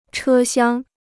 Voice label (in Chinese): "车厢", Chinese